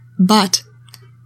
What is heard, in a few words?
"BUT", english; 3000 words